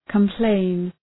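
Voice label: "complain", English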